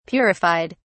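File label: "purified", British English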